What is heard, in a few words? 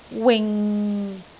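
"wing6", Cantonese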